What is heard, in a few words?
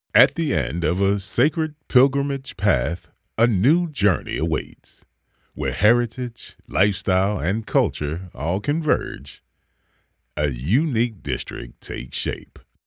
english american podcasts